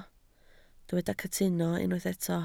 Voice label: "cytuno", Welsh

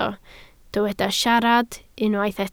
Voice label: "siarad", Welsh

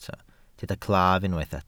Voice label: "claf", welsh

